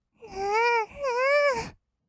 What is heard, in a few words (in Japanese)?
"ぬーぬー", kawaii voice; 1200 kawaii voice!!; 色々なワンショット